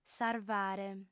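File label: "sarvare", female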